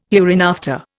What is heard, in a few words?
"hereinafter", Indian